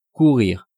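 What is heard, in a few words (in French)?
"courir", French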